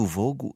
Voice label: "u focu", sud

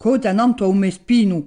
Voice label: "codda nantu à u me spinu", Gravona (centre); grimpe sur mon dos

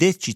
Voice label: “deci”, Rocca (sud)

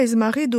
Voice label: hè smaritu, Moita Verde (nord)

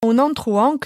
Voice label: “bancu”, Moita Verde (nord)

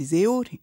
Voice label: sei ori, Gravona (sud); 6 heures

